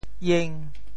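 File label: "ieng5", Teochew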